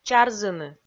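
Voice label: "чарзыны", Komi, female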